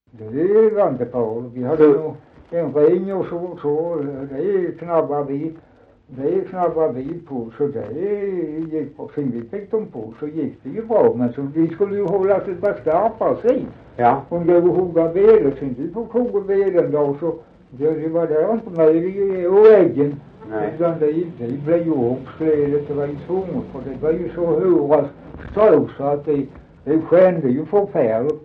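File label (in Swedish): Dalby Skåne, Svenska sagor dialekter; Folkminnen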